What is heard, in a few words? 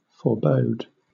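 "forebode" British English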